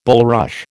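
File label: "bulrush", American English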